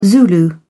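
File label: "zulu", British English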